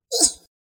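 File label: "Ooff", Spanish; hedgewars; Default es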